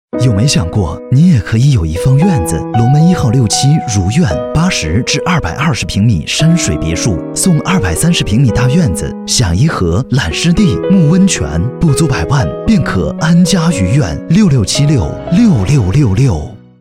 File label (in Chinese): 男 温暖; 龙门一号